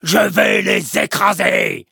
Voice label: battle, fr; cookie run

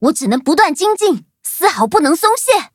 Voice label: levelup, zh-hant

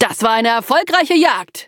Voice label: level up de; Cookie Run

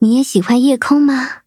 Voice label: levelup zh-hant; cookie run

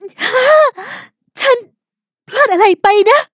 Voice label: defeat, th

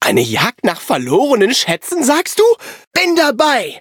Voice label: loading, de

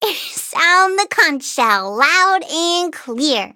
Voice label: victory, en; cookie run

